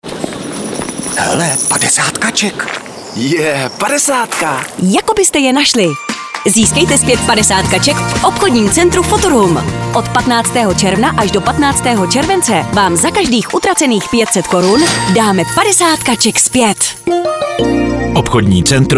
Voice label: Radio spot; OC FUTURUM; Nahrávací studio